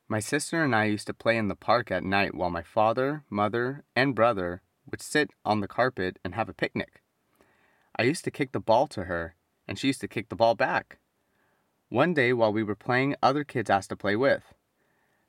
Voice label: Reading